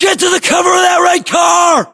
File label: US order cover car red, battlechatter, english